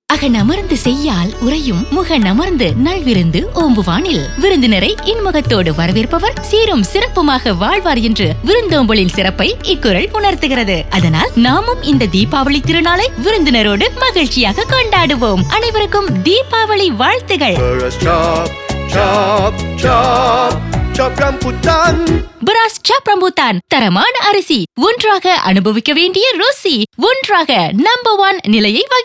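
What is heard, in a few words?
Tamil; RAMBUTAN; DEEPAVALI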